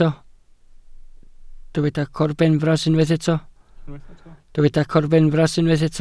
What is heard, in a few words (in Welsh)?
"corbenfras" Welsh